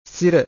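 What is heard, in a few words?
"sira", Pali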